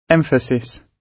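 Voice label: "emphasis", British English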